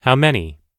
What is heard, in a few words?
how many, US English